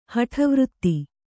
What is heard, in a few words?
"ಹಠವೃತ್ತಿ", Kannada